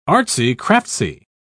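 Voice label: "artsy-craftsy", British English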